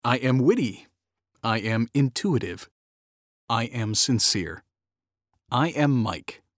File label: men mini demo